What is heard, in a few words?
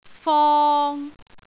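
"fong3", Cantonese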